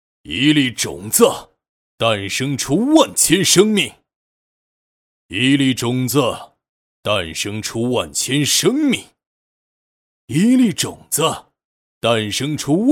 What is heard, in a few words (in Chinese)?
男 游戏 语音内容